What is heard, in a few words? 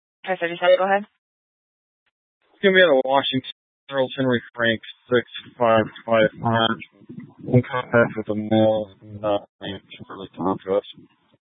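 Sheriff Dispatch, scanner audio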